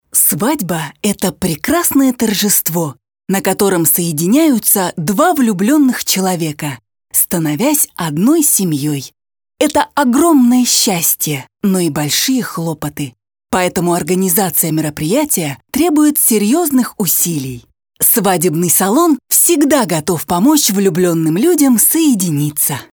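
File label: Russian female Inspiration, vo examples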